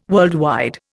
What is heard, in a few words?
"worldwide", Indian